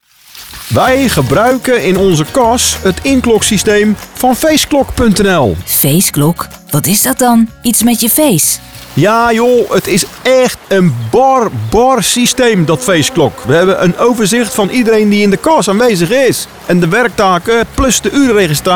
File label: Commercial Radio; Faceclock; NL; Paprika Tasty; Radio1